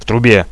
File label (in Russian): "Truba", Russian, radio